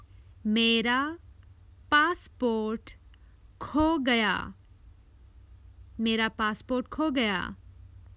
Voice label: I lost my passport, Common Hindi Phrases for travel